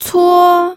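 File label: "cuo", Mandarin Chinese, first tone; pinyin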